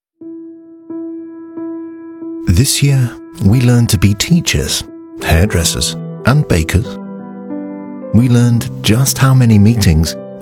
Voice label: Commercial; KLM; Replace Together